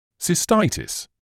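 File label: "cystitis", British English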